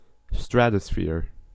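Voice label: "stratosphere", US English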